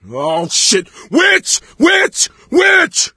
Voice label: witch chasing; voice; source engine; left 4 dead 2